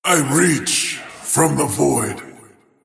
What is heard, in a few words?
attack pain; Void Spirit